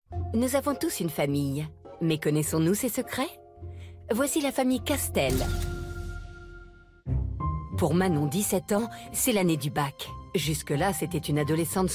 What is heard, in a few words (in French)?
narration; petits secrets en famille